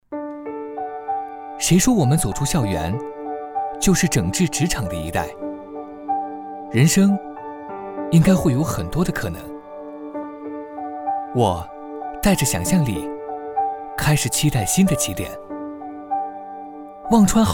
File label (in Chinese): 男 走心旁白 毕业季